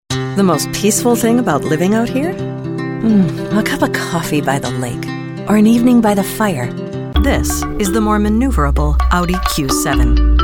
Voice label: Commercial